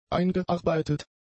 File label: "eingearbeitet", German; adjectives